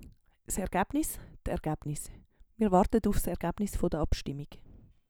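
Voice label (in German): s Ergebnis, Swiss German; Wortschatz; Hauptwortschatz; Wortschatz Kapitel 7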